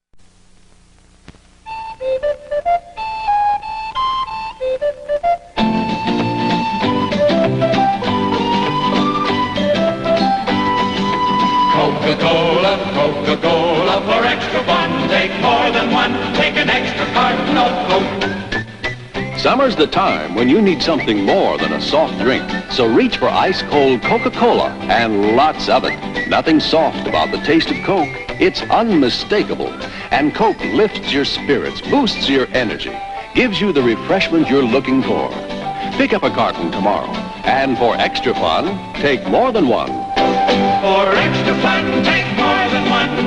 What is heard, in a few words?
Commercial; Coke Beach Party; 1965